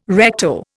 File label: "recto", Indian